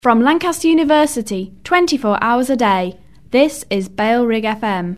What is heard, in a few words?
female, no bed; from lanc uni - 24h per day; bfm jingles ads RSL 1994